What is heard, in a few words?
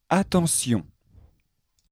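"attention" French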